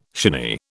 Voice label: "shinny", British